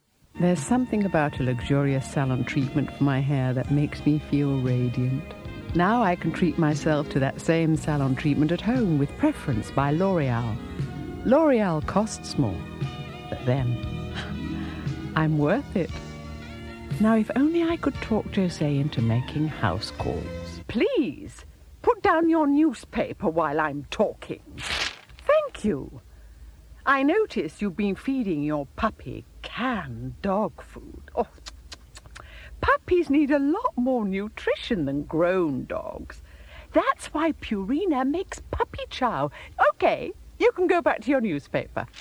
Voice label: commercials

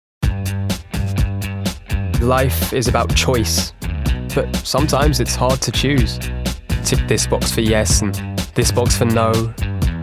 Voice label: Commercial

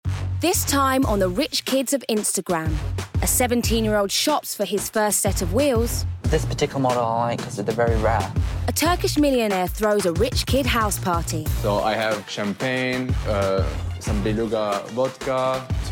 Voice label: Documentary

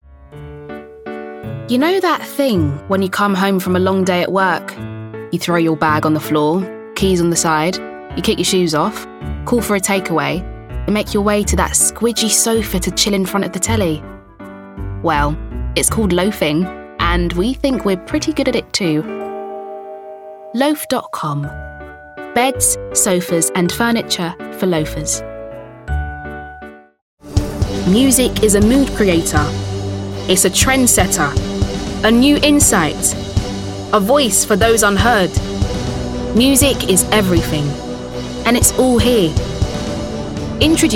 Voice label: Commercial

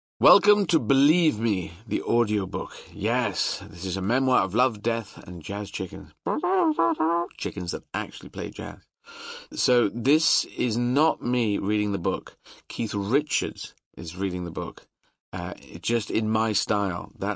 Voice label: Narration

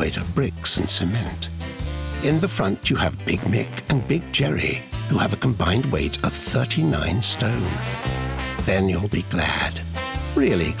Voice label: Commercial Volkswagen

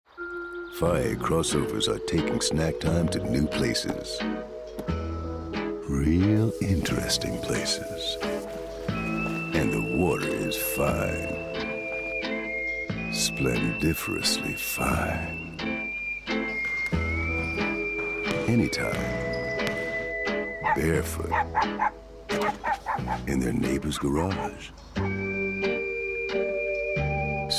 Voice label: Commercials

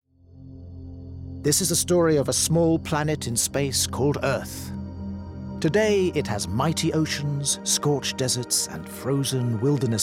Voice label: Documentary

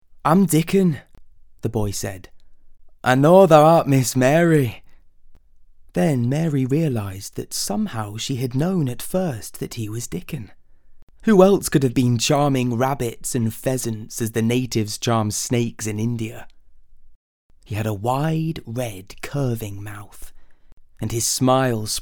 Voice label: Childrens

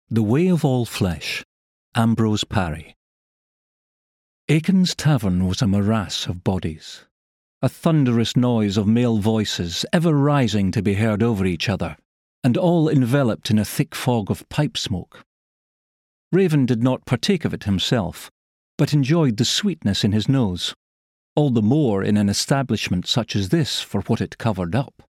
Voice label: Audiobook; Natural Accent: Scottish

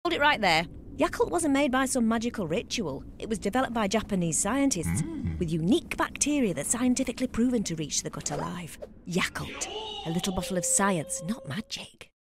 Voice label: TV Ad UK; Yakult Making

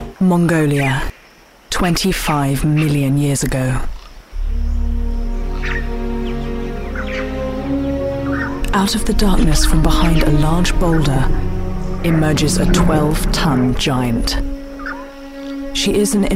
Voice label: Documentary

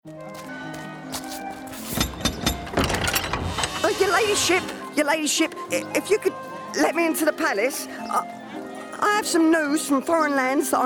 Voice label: Character; HONEY VO Reel; Queens Messenger

